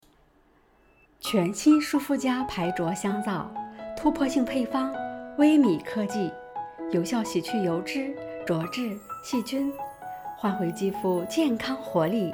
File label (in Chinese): 商业广, 女配音, 青年（18-35）, 普通话, 温柔甜美, 女性知性; 商业广舒肤佳